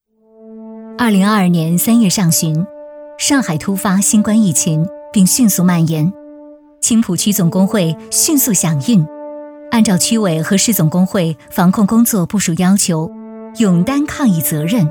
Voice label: 女 政府专题 汇报年终总结

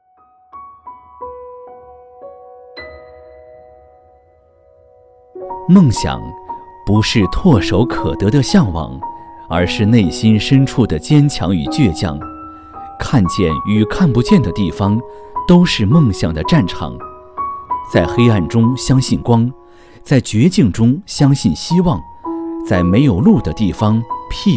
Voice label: 男 旁白感情; 梦想寿险

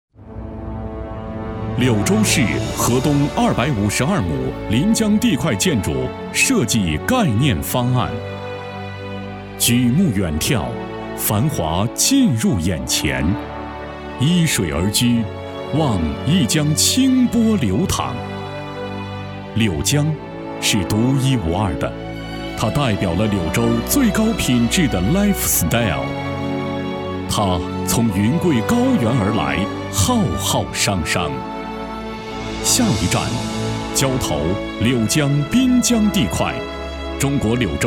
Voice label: 男-宣传片-浑厚

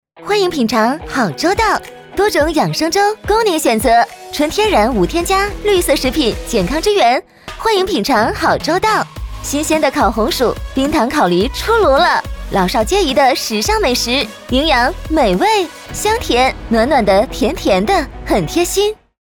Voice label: 女 促销; 好粥到

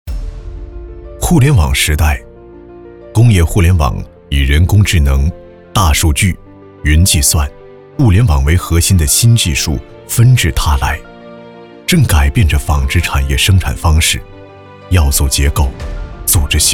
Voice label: 男,专题,科技时尚; 在嘉麟杰出样

